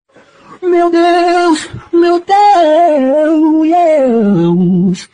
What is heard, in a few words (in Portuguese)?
meu deus autotune